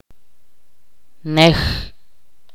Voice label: "cola", chorti